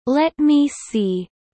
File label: Kelly low; spring festival